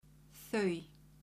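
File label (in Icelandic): "þau", Icelandic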